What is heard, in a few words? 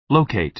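"locate", American English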